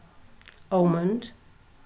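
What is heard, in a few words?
"almond", British, female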